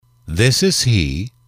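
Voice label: "this is he", English, male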